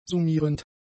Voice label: "summierend", German; adjectives

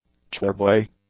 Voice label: "choirboy", English; nouns

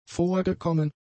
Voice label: "vorgekommen", German; adjectives

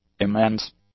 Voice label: "immense", English; adjectives

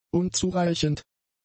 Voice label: "unzureichend", German; adjectives

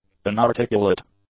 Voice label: "unarticulate", English; adjectives

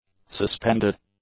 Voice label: "suspended", English; adjectives

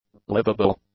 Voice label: "livable", english; adjectives

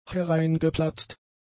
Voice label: "hereingeplatzt", German; adjectives

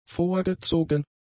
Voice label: "vorgezogen", German; adjectives

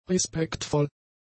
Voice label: "respektvoll", German; adjectives

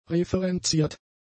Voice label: "referenziert", German; adjectives

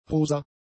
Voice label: "rosa", German; adjectives

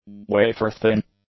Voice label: "wafer-thin", English; adjectives

